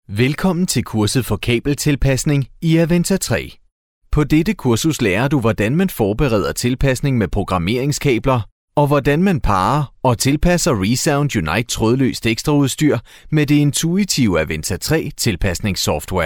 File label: danish male; dictor